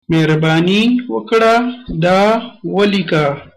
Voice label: write, pashto